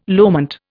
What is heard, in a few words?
"loment", Indian